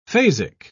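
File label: "phasic", British English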